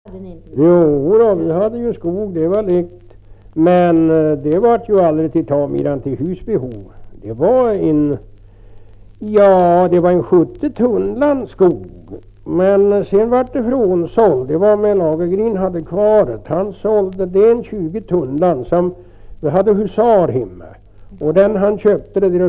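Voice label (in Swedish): Kräklinge Närke, Svenska sagor, dialekter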